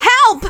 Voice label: scout help me, femscout